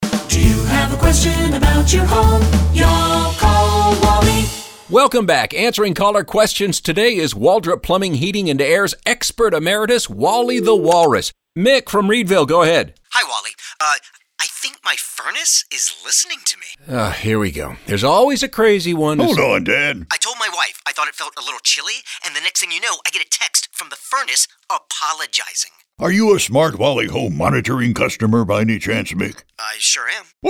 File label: radio ads; My Furnace Is Listening